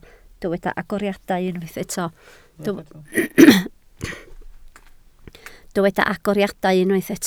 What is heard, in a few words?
"agoriadau", Welsh